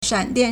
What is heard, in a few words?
"shan3dian4", Chinese